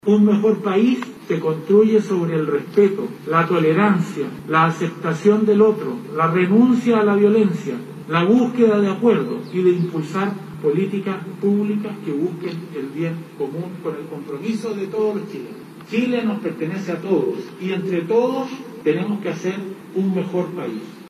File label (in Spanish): cuña